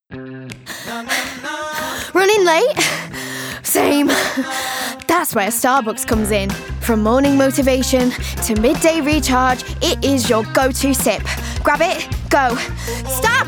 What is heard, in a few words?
Manchester, Commercial, Bright, Upbeat, Young, Energetic; Upbeat Reel